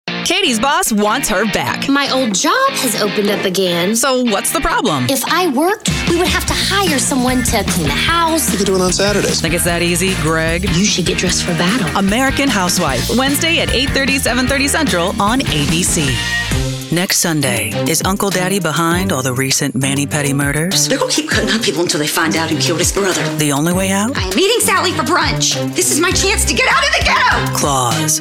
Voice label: Network Promo

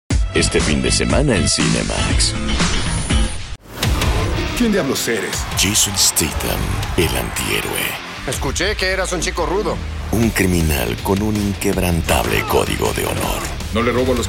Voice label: Promo Spanish

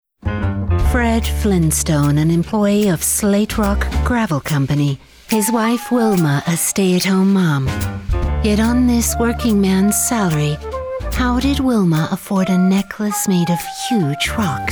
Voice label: Commercial English

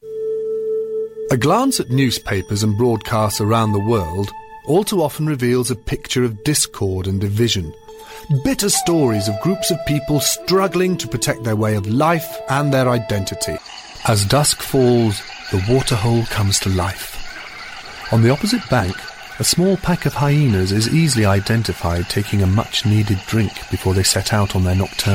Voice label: narrative

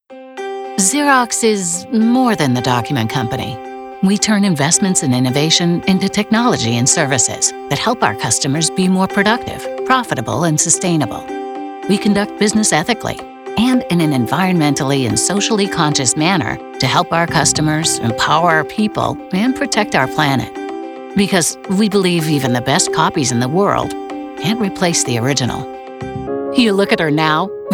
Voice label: corporate

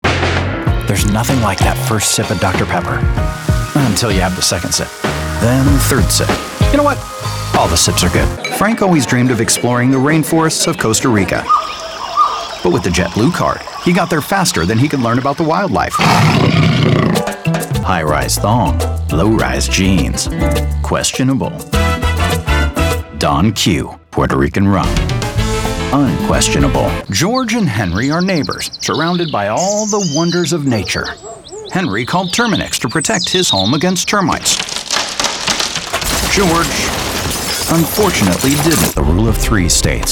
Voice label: Commercial

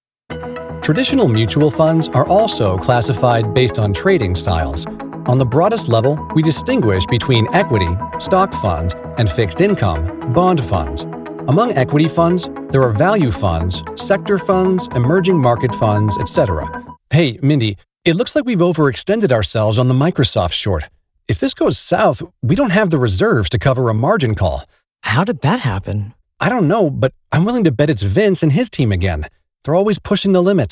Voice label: elearning

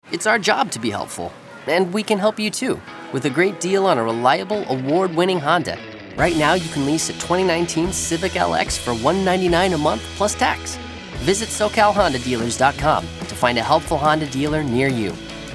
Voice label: Commercial

